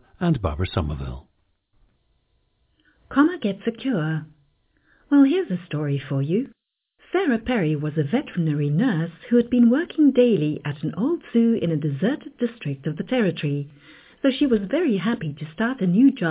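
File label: south africa, dialects of English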